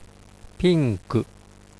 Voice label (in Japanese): "pinku" Japanese; ぴんく; ピンク; Let's Speak Foreign Language